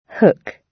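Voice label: "hook", British English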